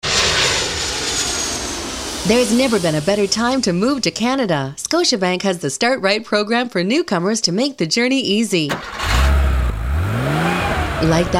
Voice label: female; 680 News; scotiabank on the move